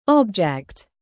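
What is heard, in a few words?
"present", noun, British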